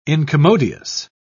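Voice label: "incommodious", British English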